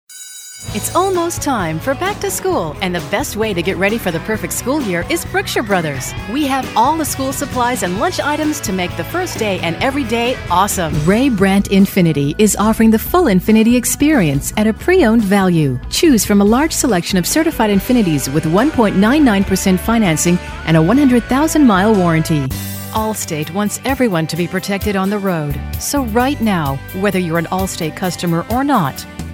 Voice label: Fall Commercial

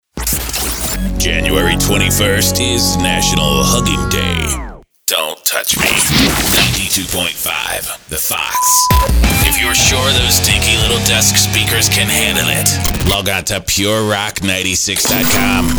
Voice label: Rock Imaging

